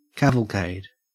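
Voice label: "cavalcade", Australian English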